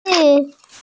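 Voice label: "zhi3", Mandarin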